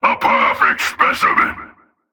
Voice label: Male Mid Combat Line, dialogue; NPC; Heist Cultist Mid Combat

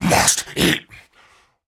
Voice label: Cannibal Male Taunt; Trunk Act One Monster

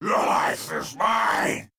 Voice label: Unarmed Elite Alert Line, dialogue; NPC; Heist Cultist Spotted

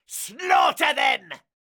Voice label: Pirate Elite Male Chokepoint Line; NPC; Heist Thug Chokepoint

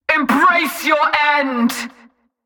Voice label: Female Mid Combat Line; NPC; Heist Cultist Mid Combat

